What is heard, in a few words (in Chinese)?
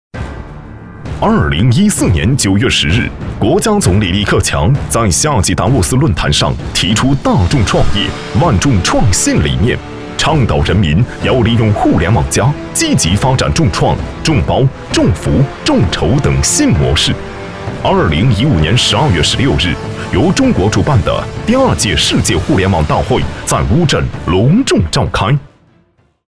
男 专题 激情; 互联网